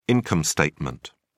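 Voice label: "income statement", British English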